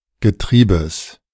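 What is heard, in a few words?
"Getriebes", German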